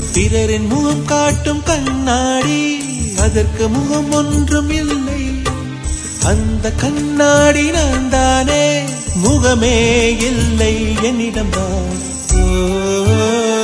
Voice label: Tamil